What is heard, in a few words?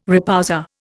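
"repoussé", Indian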